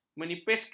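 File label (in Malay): "menipiskan", Malay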